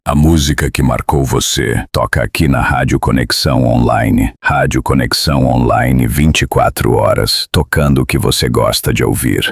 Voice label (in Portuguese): voz vinheta impacto radio